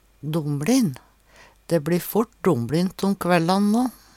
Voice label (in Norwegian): domlint, Numedalsmål